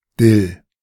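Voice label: "Dill", German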